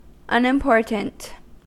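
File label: "unimportant", American English; pronunciations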